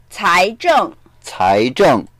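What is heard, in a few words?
"cai2zheng4", Mandarin Chinese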